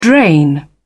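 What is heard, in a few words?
"drain", British English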